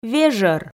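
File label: "вежӧр", Komi, female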